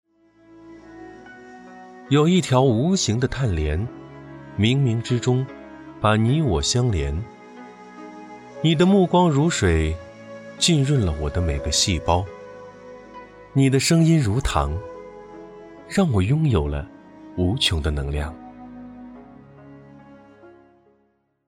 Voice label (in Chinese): 古风 公子音